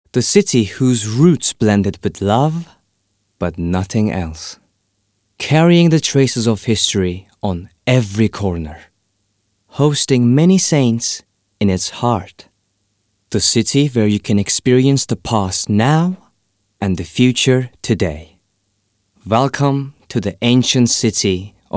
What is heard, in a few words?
male english with light turkish accent documentary